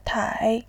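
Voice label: "tai", Mandarin Chinese, third tone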